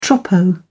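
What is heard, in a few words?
"troppo", British English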